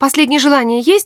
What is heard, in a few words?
kill wounded, woman; Stalker Anomaly; characters voice human help